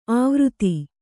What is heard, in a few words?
"ಆವೃತಿ", Kannada